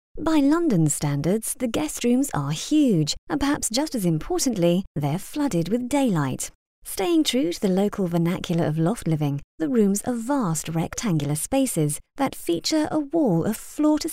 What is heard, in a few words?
DRY READ